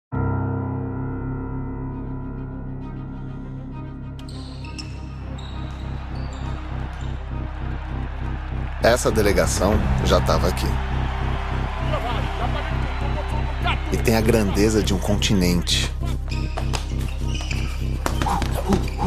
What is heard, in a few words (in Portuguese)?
Locução Institucional; TETO Olimpídas